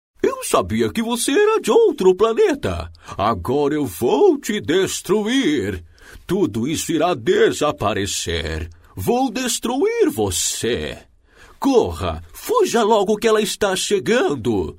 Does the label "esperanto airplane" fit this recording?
No